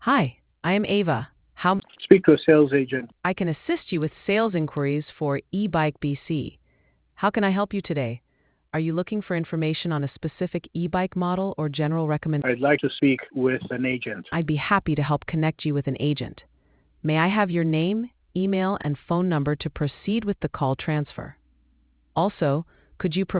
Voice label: conversation